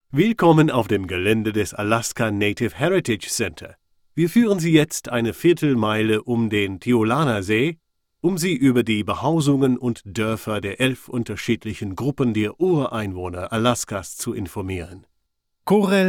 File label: German Demos